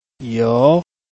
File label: "you2", Chinese